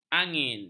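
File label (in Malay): "angin", Malay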